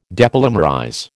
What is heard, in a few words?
"depolymerize", American